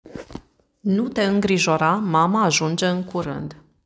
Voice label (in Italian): Rumeno